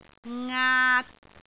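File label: "ngaat3", Cantonese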